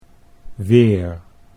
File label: "weer", Dutch